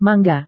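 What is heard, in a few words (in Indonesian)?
"mangga", Indonesian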